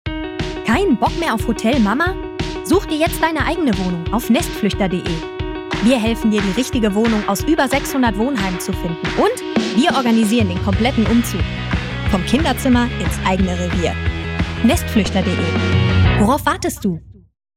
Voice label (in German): Werbung; Nestfluechter